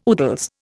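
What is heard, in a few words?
"oodles", Indian English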